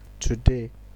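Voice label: "today", Nigerian Pidgin, male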